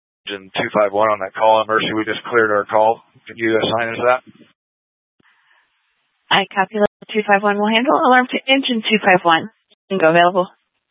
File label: Fire Departments, scanner